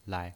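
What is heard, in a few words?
"lai", pinyin, Mandarin Chinese, neutral tone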